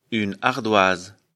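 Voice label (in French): "ardoise", French; afrikalan; lecture fr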